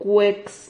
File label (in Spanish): "cuex", Silabas